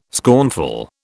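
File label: "scornful", British English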